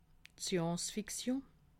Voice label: "science fiction", alsace

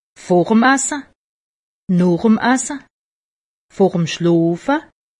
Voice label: "voor", alsace; medecine

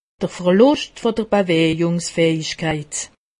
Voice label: "bewejung", alsace; medecine

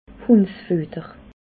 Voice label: "hundsfuetter", alsace

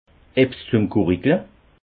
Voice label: "gurigle", alsace

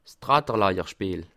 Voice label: "tratterlajer", Alsace

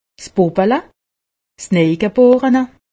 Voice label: "sbubbele", alsace; medecine

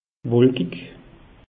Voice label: "wolkig", alsace; meteo

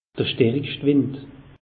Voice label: "starischte wind", alsace; meteo

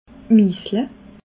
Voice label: "misele", alsace

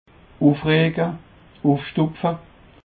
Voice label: "ufrege", Alsace

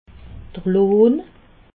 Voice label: "lohn", alsace

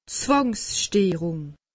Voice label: "zwangsteerung", alsace; medecine

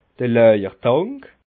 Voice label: "laawertank", alsace

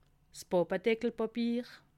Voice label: "pappedeckelpapier", alsace

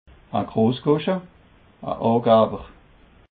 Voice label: "grossgosche", Alsace

